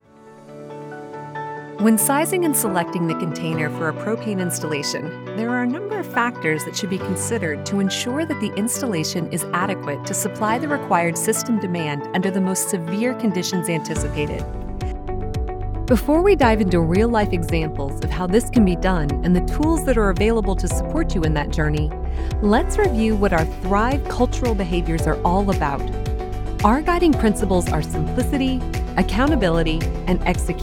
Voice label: english american e-learning